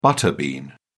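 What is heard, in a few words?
"butter bean", English, British English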